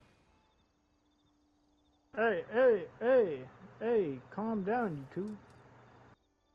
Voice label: calm down coo